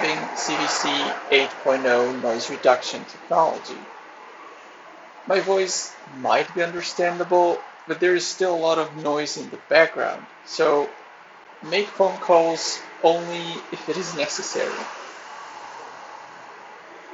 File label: microphone test; treblab x3 pro